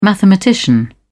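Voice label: "mathematician", British English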